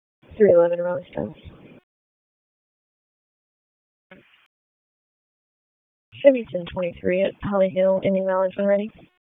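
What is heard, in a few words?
Raleigh Police Northeast District Dispatch, p25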